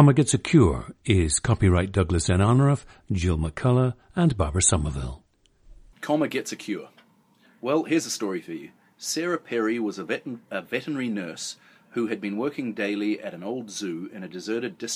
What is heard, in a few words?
New Zealand